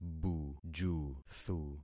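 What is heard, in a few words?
"bujuthu", concatenated syllables; Affixing experiment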